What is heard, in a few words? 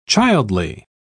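"childly", British English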